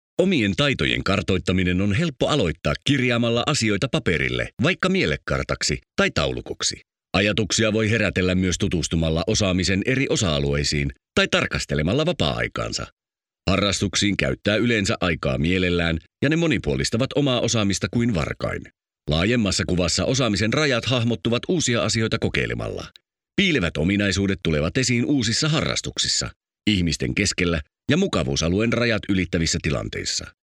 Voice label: male finnish voice over upbeat

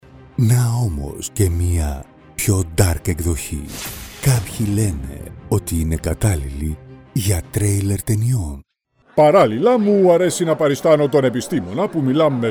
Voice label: male greek voice over character

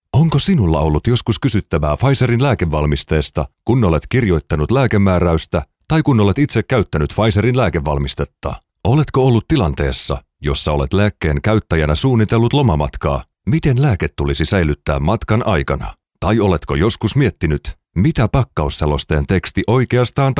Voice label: male finnish voice over upbeat

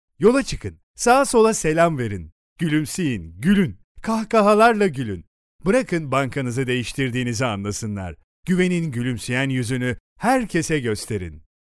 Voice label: male turkish voice over talent upbeat

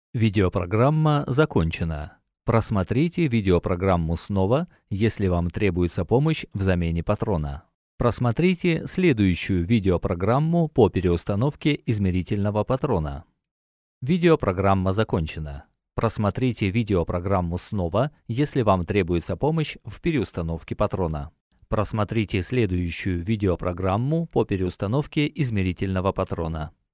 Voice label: male russian voice over talent narrative

